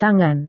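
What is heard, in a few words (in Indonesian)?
"tangan", Indonesian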